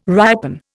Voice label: "ripen", Indian